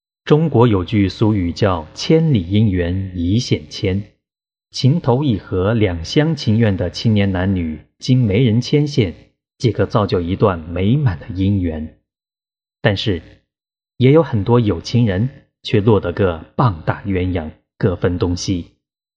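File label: Chinese, male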